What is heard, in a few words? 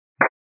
122 725MHz, scanner radio